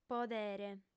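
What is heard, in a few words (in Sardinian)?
"podere", female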